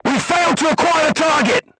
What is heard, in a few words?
voice message armor not pierce, vietnamese